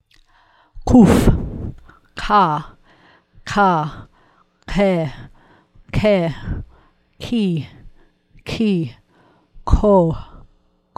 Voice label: Quph, Aleph Bet

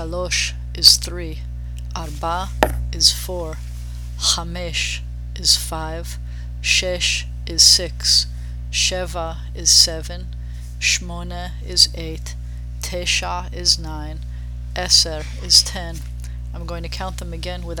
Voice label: Numbers Fem

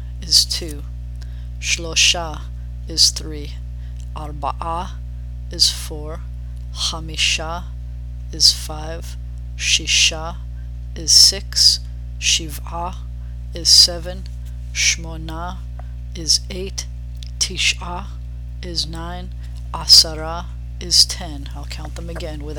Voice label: Numbers Masc

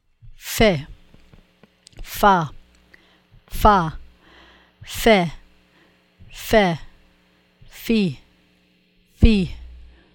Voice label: Pheh, Aleph Bet

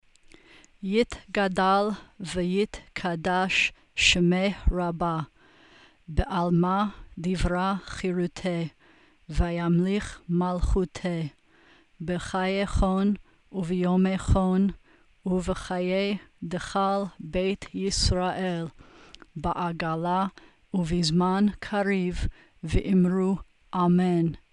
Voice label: Kaddish Ytom read; Bnai Mitzvah Requirements; Service Practice

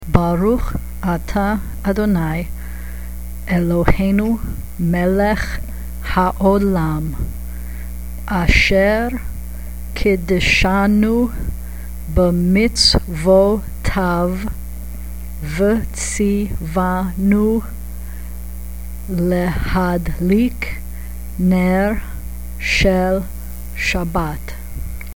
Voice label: Shabbat Candle Blessing read; Bnai Mitzvah Requirements; Service Practice

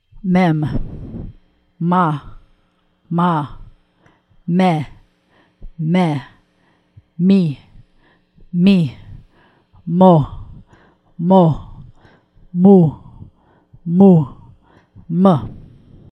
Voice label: Mem, Aleph Bet

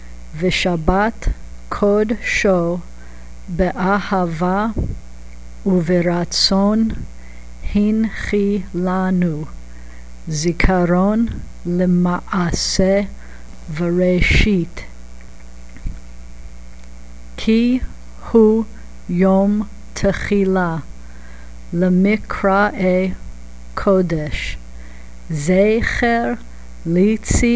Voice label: Kiddush read; Bnai Mitzvah Requirements; Service Practice

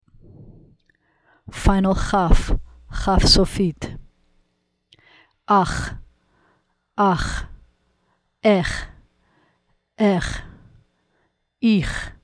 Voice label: Final KHaph, Aleph Bet

